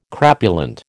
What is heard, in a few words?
"crapulent", American